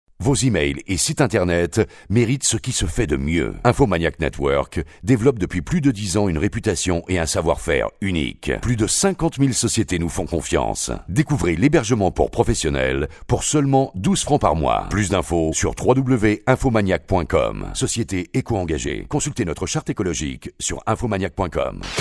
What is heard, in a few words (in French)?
radio pub spot